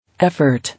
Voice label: "Effort", English